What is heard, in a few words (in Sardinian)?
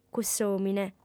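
"cuss'òmine", female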